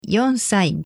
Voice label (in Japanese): "yonsai", Japanese